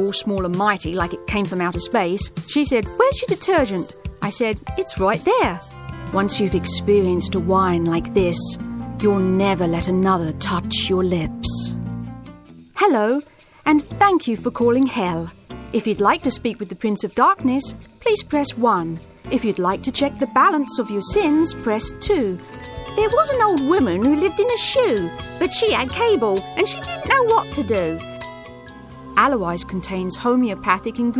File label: British